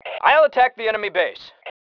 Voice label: attacking base, male

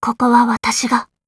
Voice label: yes, voice commands; svencoop; Blanc

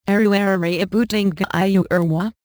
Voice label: cyborg rebooting; svencoop; outerspace

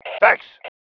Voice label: THANKS, MALE

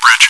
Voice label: chitchat; svencoop

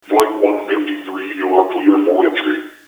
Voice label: clear for entry land, intercom; ProjectMobius

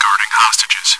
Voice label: chitchat, bot, voice commands; svencoop

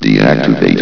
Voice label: deactivate, vox; svencoop

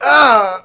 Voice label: fall pain; wizardwars

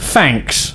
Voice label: Thanks, male; svencoop

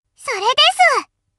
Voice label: Yes, voice commands Anime Loli; svencoop

